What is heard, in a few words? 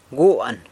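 "guan", San Lucas Quiavini Zapotec; bull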